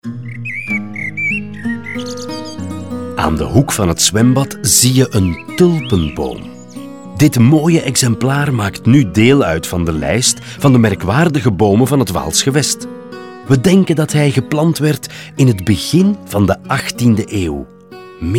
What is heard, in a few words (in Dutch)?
audioguide nl enfant; jardin; modave